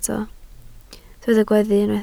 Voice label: "gweddu", Welsh